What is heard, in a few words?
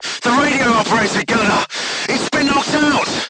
voice message machine gunner contused, crew tanks vietnamese